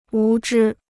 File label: "无知", Chinese